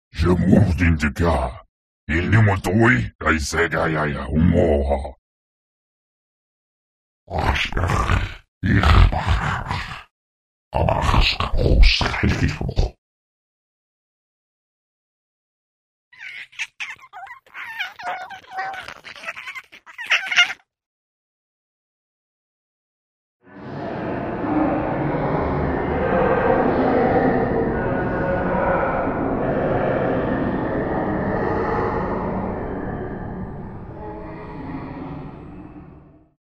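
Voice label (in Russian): разговор инопланетянина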